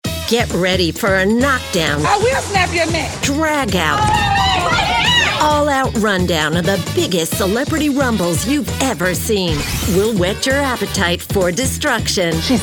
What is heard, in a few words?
narration